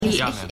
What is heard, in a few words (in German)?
Mann, Stimmproben